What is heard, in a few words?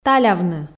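"талявны", Komi, female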